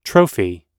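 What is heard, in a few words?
"trophy", English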